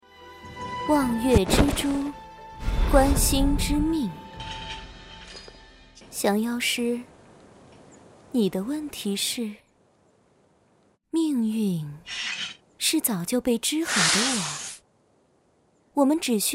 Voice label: 游戏, 傲气御姐

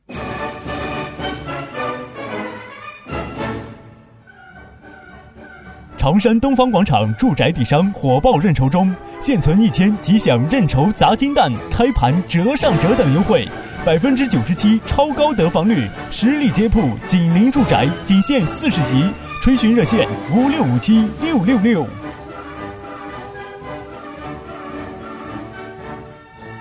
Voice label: 男 广告 商铺, 国语男声配音

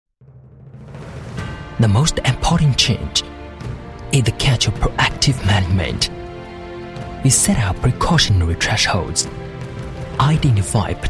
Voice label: 英男

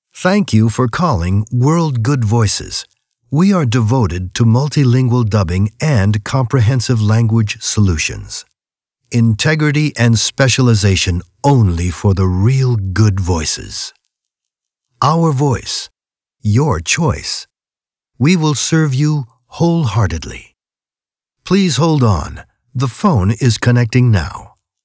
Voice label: 外籍男

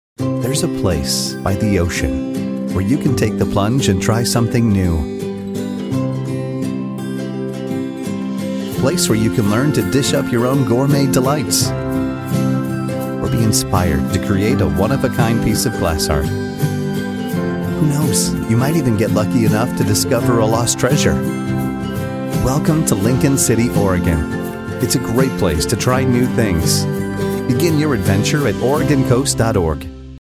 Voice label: 外籍男, 商业广告, Commercial; Lincoln City Oregon

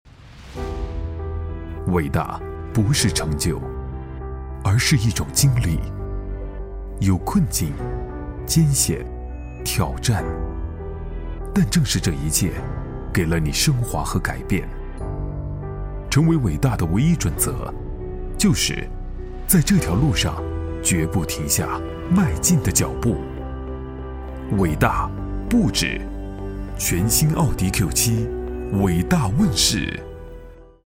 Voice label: 男 广告 时尚沉稳 品牌广告, 国语男声配音 暖声录音; 奥迪

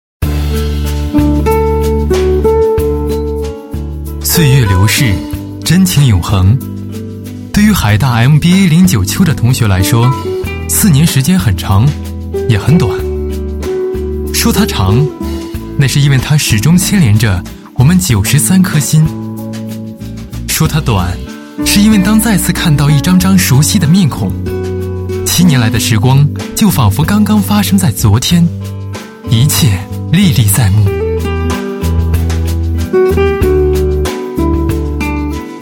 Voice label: 男 晚会 毕业四周年暨同学会成立晚会, 配音

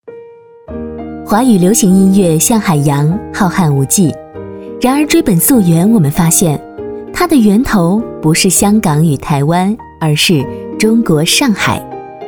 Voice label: 女 栏目 轻松 自然 音乐节目, 国语女声配音

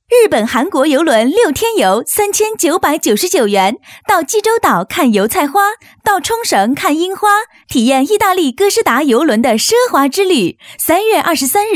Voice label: 女, 广告; 六日韩游

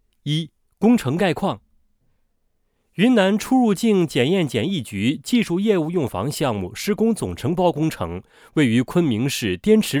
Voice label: 配音; 检验检疫局工程概况

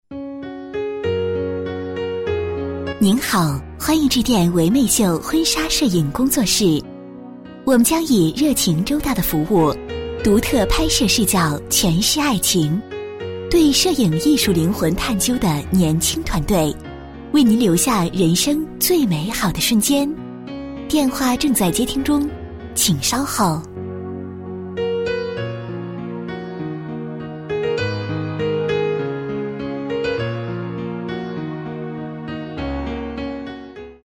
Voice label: 女 彩铃女声 婚纱彩铃

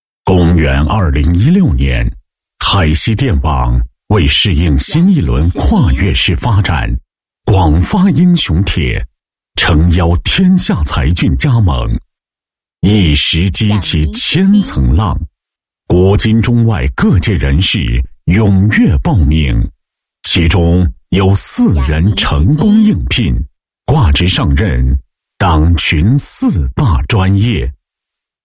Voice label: 男, 电力企业; 海西电网

